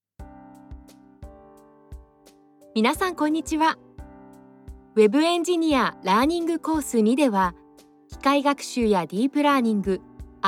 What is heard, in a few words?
japanese e-learning